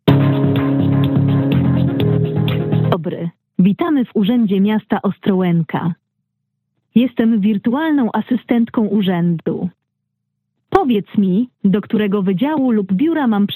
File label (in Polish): voice bot; infolinii urzedu miasta